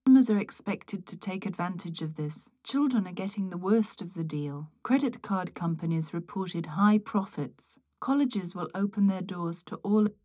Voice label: female4 srec 130ms 50cm; SiSEC11; underdetermined